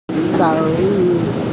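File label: "mao yi", Mandarin Chinese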